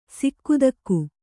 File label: "ಸಿಕ್ಥಕ", Kannada